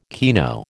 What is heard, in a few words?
"kino", American